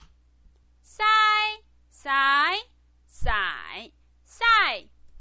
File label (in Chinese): "sai", pinyin